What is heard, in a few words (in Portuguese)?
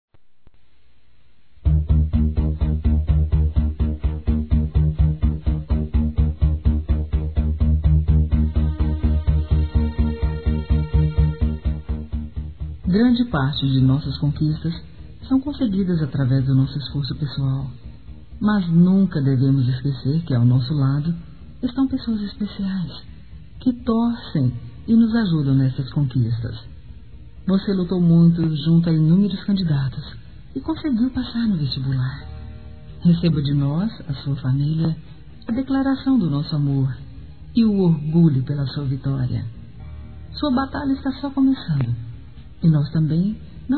Parabéns Evangélico, Gospel, fem; Telemensagens; Telemensagens 2017